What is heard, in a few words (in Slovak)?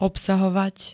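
"obsahovať", Slovak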